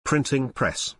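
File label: "printing press", English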